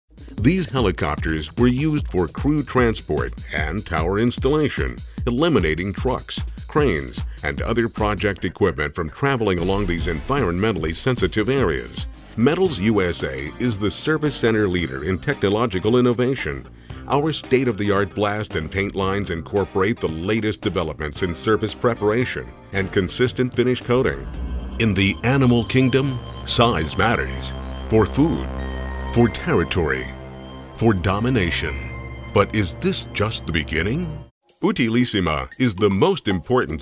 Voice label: narrations